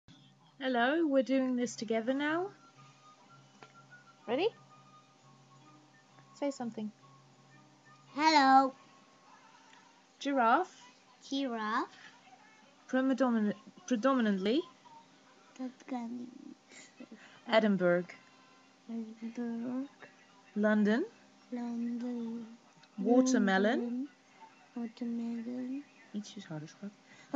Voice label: accent meme

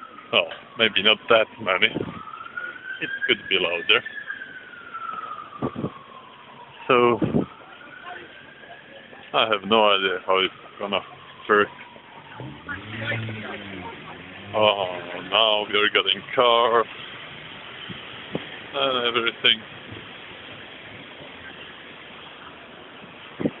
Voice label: blackberry test recording different speaker

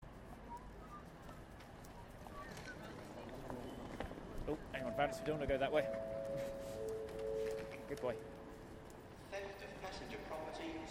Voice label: transport police announcement by champagne bar; guidedogvance; rjnet

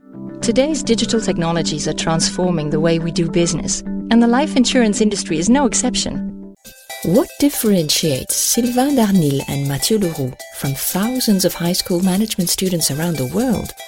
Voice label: neutral english showreel

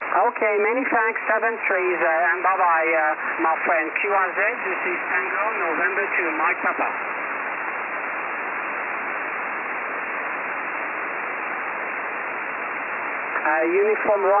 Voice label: 21 MHz SSB

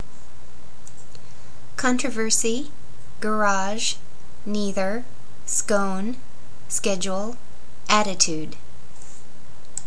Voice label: San Bruno California USA female words

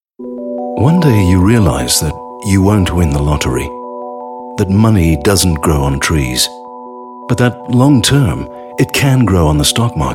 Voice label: soft sell radio

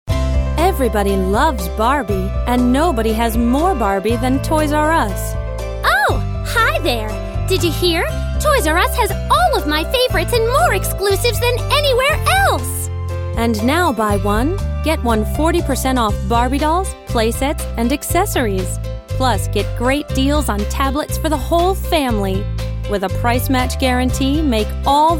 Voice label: advert; barbie

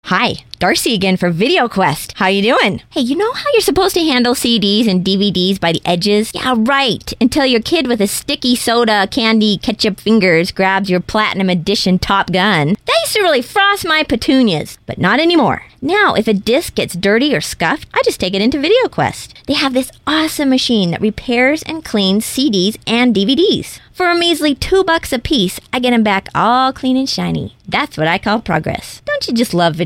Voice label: radio advertising; video quest darci 12 dirty dvd

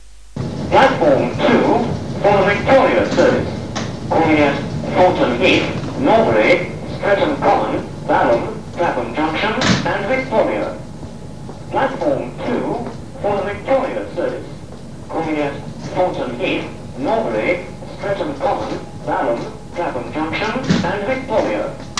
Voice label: pre recorded platform announcement, Selhurst

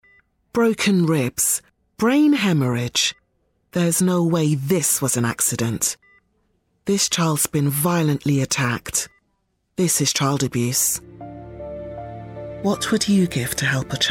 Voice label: voiceover appeal; nspcc

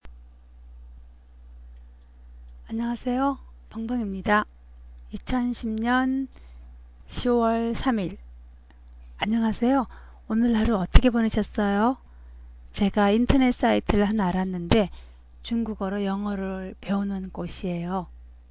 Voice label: korean learning english

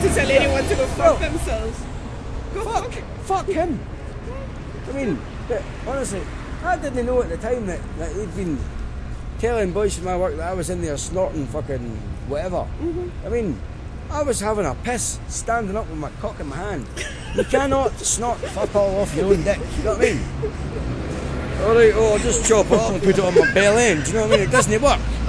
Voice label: drunken scotsman rambling on rude

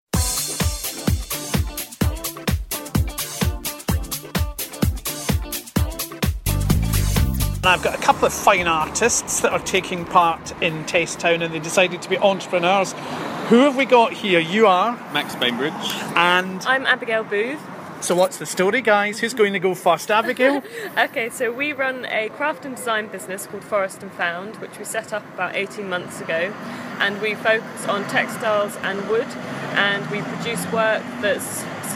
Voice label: conversation

